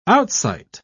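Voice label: "outsight", British English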